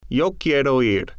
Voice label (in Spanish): quiero ir, spanish